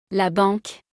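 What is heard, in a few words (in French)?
"la banque", French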